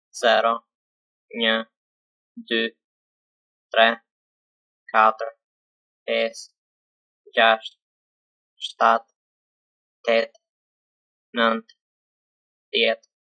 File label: Albanian numbers